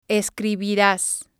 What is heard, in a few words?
"escribirás", Spanish